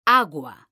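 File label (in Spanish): "agua", Spanish, female; mekanta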